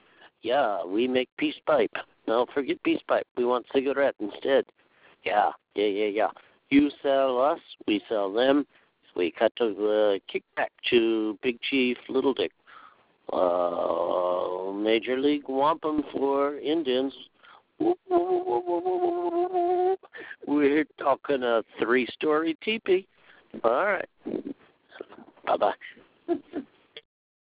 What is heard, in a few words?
Voice Message